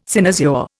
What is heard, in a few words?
"cynosure", Indian